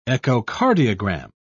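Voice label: "echocardiogram", British English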